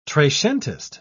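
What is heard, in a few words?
"trecentist", British English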